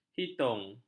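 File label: "hitung", Malay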